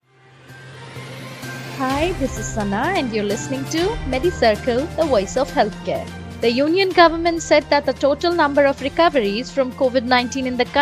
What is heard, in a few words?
english news